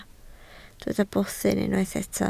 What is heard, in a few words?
"bwthyn", Welsh